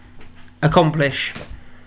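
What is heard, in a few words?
"accomplish", British English, male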